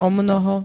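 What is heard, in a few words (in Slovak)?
"omnoho", Slovak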